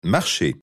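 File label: "marcher", French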